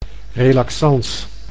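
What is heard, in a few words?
"relaxans", Dutch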